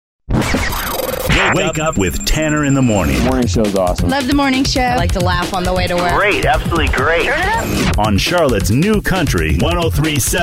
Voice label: Imaging

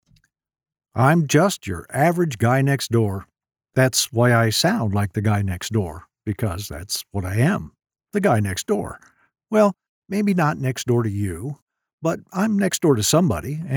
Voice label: Guy Next Door

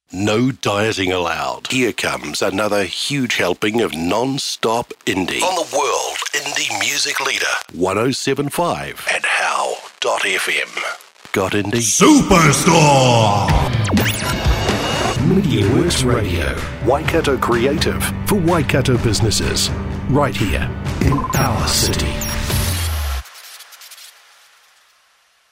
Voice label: Imaging VO

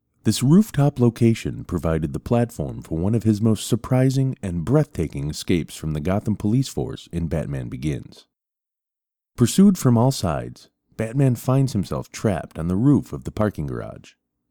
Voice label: narration test

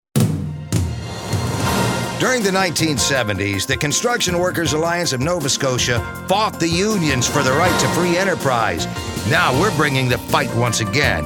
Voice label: Up Tempo Narration

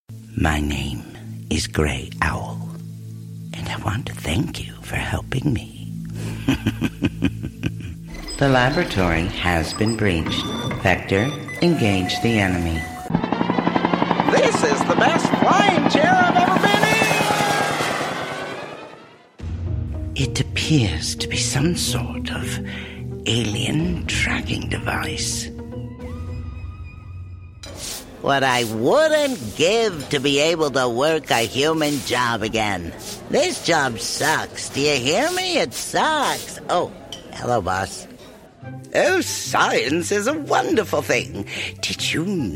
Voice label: Animation Voices

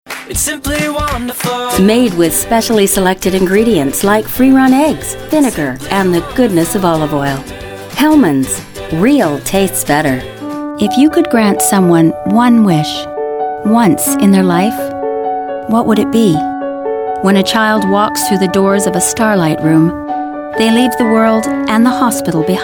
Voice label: Commercial variety

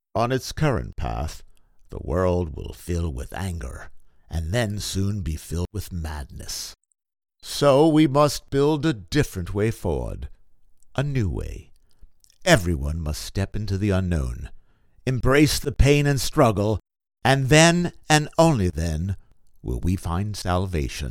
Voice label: British

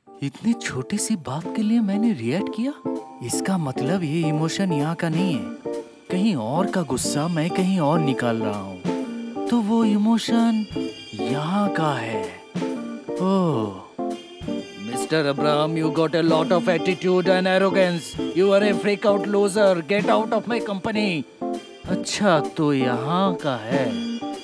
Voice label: Movie Trailer With music

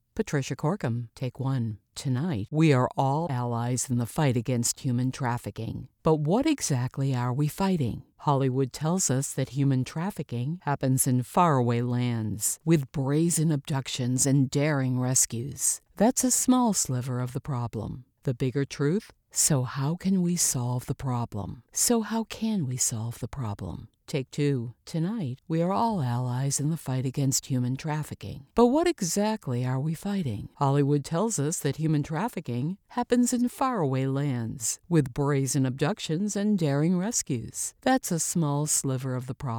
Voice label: Education and PSA, narration voices; Human Trafficking

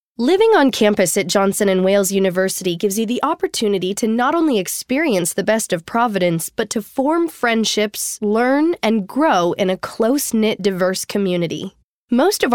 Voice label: Instructional

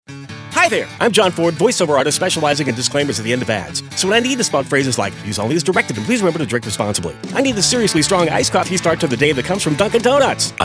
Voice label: Commercial Character

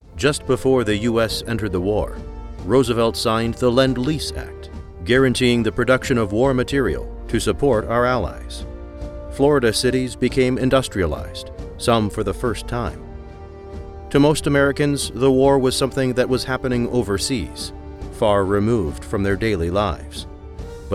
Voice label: WW2 Documentary

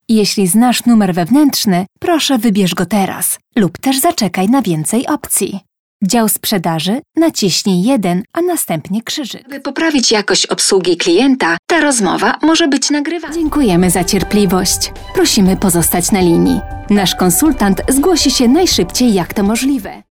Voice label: POLISH IVR

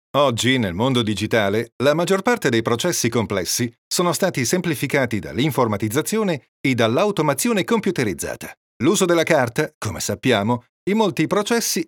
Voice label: E LEARNING

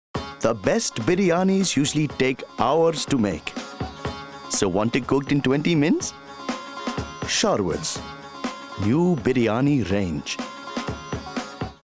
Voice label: Radio Commercial; Sharwoods

